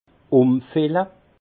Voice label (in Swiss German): "umfille", alsace; vin; transvaser